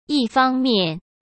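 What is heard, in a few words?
"一方面", Mandarin Chinese; chinese-flashcards; hsk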